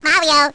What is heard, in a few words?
baby "mario"; baby luigi